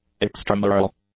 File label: "extramural", english; adjectives